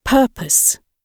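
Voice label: "purpose", British English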